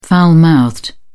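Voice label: "foul mouthed", English, British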